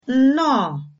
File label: meet, igbo